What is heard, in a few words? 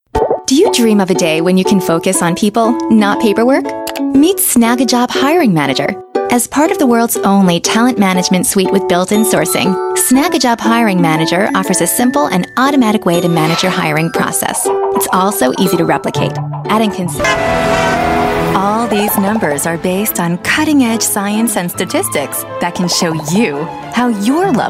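Narration Sample US English Female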